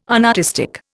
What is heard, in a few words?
"unartistic", Indian